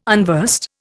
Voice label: "unversed", Indian